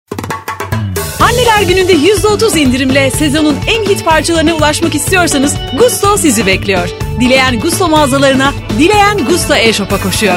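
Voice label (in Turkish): kampanya, enerjik tempolu, seslendirme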